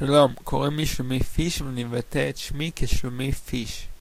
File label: How I pronounce Shlomi Fish Hebrew